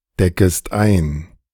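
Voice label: "deckest ein", German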